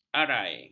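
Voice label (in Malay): "aral", Malay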